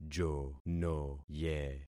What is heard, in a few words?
"jonoye", concat syllables; Affixing experiment